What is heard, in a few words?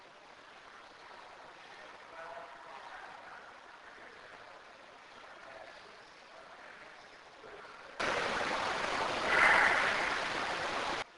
hey amplified; Case 012 Pocahontas Fuel Building 1st Floor Lobby